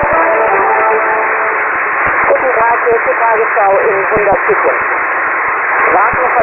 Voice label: demod real uSDX USB; Tagesschau